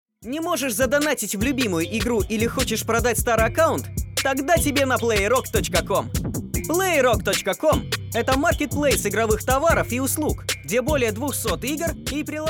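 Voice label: advertisement; vesna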